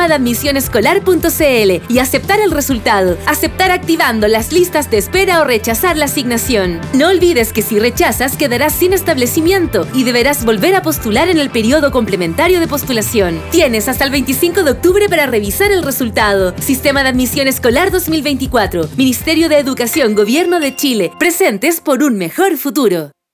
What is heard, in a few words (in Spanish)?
Mensaje radial Resultados; liceo industrial